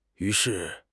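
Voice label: "yu2 shi4", Chinese; 汉语口语新时代-初级下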